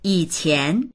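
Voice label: "yi3qian2", Mandarin Chinese; 以前; 以前、昔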